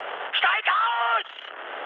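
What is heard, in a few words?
German bailout mood high, speech; War Thunder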